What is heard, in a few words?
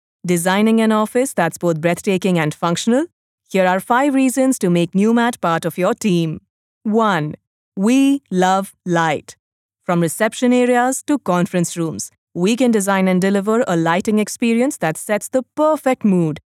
Corporate English, Professional English Female Voice Over; Newmat India